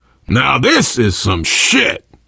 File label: world hospital, player survivor voice biker